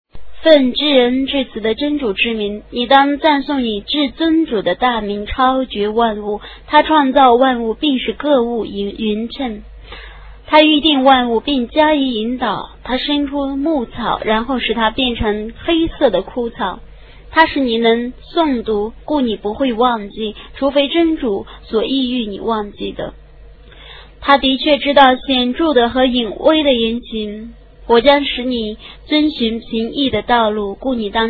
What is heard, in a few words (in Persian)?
ترجمه گویای قرآن کریم به زبان چینی; سوره اعلی